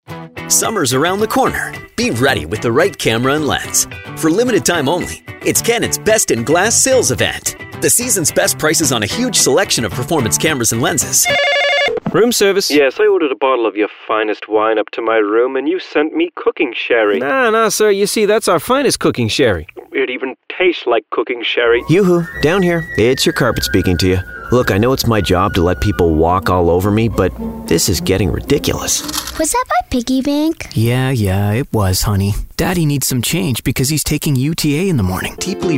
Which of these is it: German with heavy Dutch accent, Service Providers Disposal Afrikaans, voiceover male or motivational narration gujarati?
voiceover male